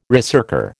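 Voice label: "ricercar", American English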